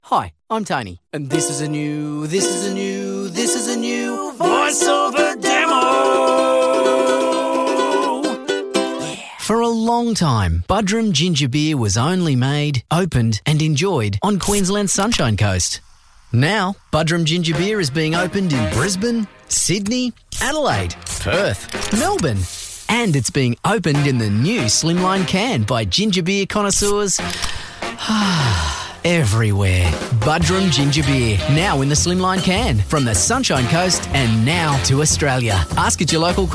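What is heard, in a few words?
male, Australian English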